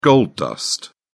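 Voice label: "gold dust", British English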